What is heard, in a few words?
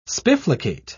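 "spifflicate", British English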